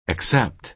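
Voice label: "except", American English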